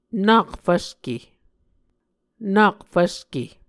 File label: "nak fvske", Muscogee